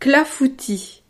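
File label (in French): "clafoutis", French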